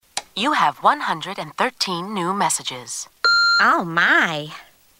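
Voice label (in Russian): автоответчик сколько сообщений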